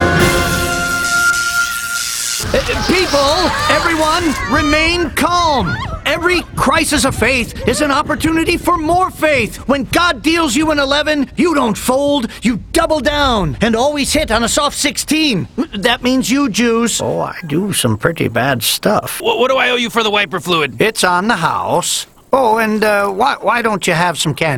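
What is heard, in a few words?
animation men, voices men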